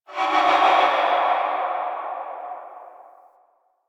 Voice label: Army Cast Vocal Layer; Warframe; Warframes; Hoplite

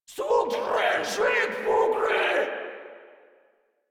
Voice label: Cover Me Grineer Female; Warframe

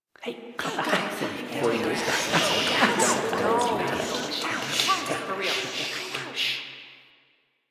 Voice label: Student Chatter; Cinematics; Warframe; The New War; Zariman

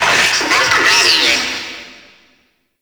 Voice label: Friendly Hack Vocal; Warframe; Entrati; Necramech Hack

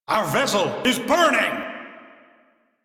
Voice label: Crew See Fire, Male; Dialog; en; Warframe; Railjack Crew; Arbiters Hexis

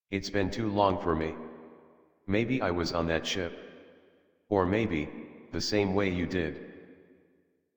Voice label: Adult, en, Dialog; Dorm Cave; Warframe; Duviri Paradox Quest

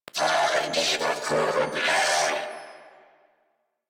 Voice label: Player Spotted, Female; New War; Narmer Grineer

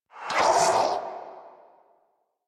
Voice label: Pain; Warframe; Zariman; Zariman Wraith

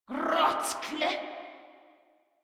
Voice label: Surprised Grineer Female; Warframe

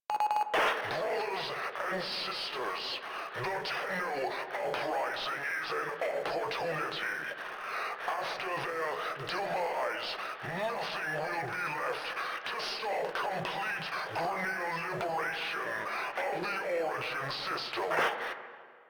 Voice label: Announcements, Radio Interceptions

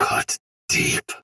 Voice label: Attack Normal Soldier Sword Agent Male, Battle; Dialog; Barks; Melee; Sinecure

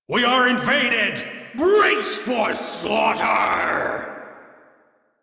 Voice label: Crew Enemy Spot, Male; Warframe; Railjack Crew; Arbiters Hexis

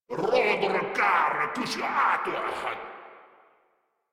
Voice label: Attack, Grineer Male; Warframe

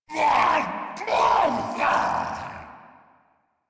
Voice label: Spawn Ghoul Saw, en; Grineer Ghoul Sawman

